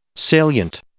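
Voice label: "salient", English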